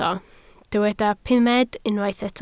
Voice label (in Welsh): "pumed", Welsh